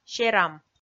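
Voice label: "серам", Komi, female; kpv audio dict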